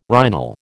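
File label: "rhinal", American English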